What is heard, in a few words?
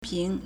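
"ping2", Mandarin Chinese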